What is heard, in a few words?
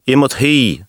Gaelic Religious; age2